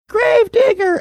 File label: saying gravedigger squeaky